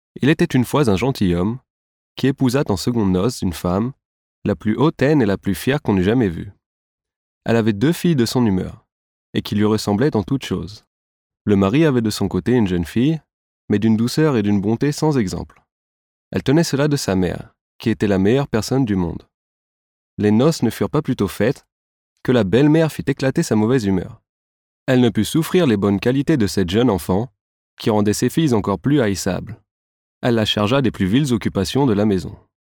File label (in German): Nachvertonung Film Sprecher Französisch